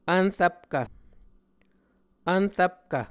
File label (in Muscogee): "vnrvpkv", muscogee